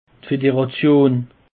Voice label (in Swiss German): "federation", alsace; peche